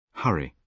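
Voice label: "hurry", British English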